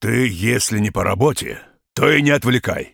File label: lumberjack greeting